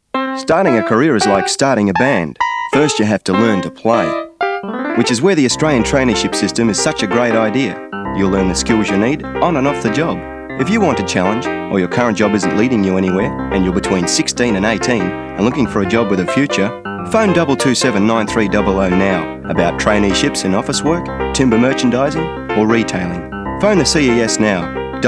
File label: Voice Over; Dept Employment and Industrial Relations